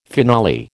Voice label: "finale", American